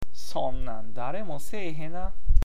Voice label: "hena", awaji ben